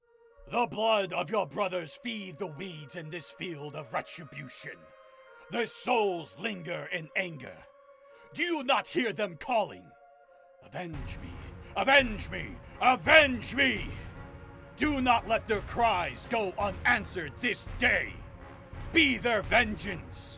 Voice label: Anime speech